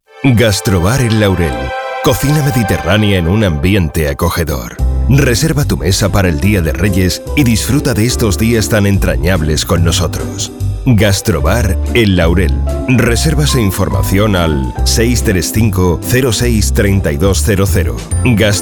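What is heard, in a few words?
European Spanish General; GoLocalise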